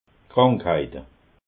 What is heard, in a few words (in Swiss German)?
"krankheite", alsace